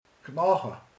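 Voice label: "csont", Hungarian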